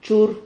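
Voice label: "chur", Spanish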